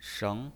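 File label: "sheng", Mandarin Chinese pinyin, second tone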